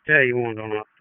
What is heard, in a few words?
FIRE OPS, p25; wake p25